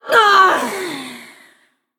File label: Female Forsaken Death